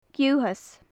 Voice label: "giuthas", gaelic; Faclan Nàdair

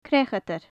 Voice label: "creachadair", gaelic; Faclan Nàdair

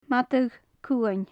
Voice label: "madadh-cuain", gaelic; Faclan Nàdair

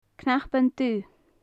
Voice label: "cnapan dubh", gaelic; knapweed; cnapain dubha; Faclan Nàdair

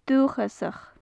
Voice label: "duthchasach", gaelic; Faclan Nàdair

